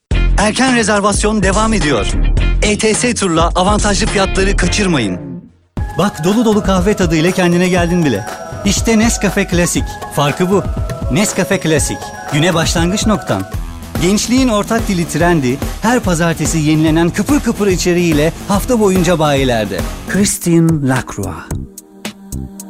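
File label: Turkish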